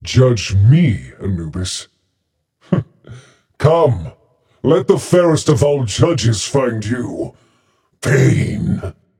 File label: Taunt Directed; Anubis; smite; osiris